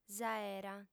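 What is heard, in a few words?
"s'aera", female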